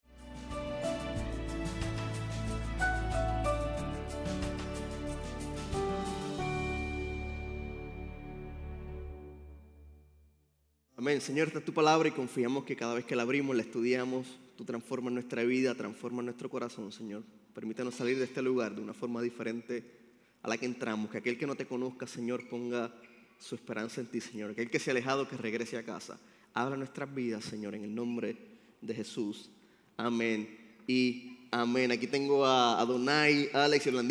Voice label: Sermon Spanish